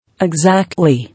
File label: "Exactly", English